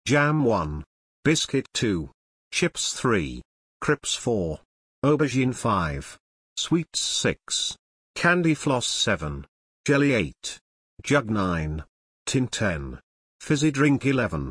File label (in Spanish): ingles britanico